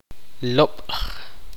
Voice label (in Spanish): "fruta", Tektiteko